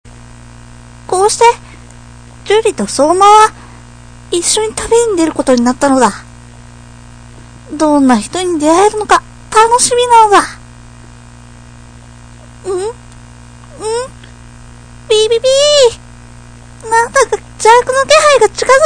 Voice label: narration animation

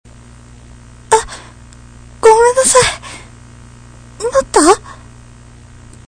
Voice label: sorry w

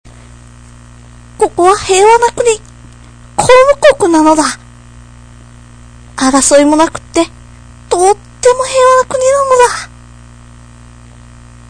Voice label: narration animation

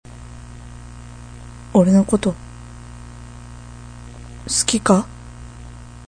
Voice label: love m

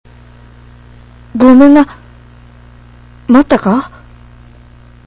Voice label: sorry m

